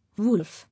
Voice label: "Wolf", English